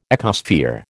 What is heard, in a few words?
"ecosphere", American